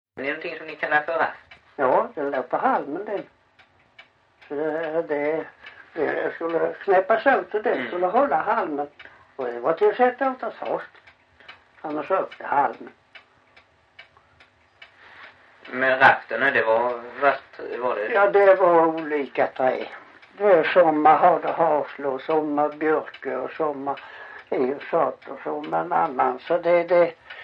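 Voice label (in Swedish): Backaryd Blekinge, Svenska sagor dialekter; Folkminnen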